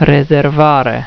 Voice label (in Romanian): "rezervare", romanian; reizen